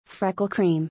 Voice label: "Freckle cream", English; freckles-in-english